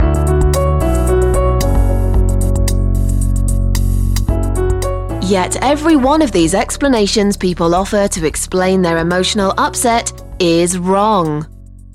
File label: Audiobooks, Voice-over; Voice-over demos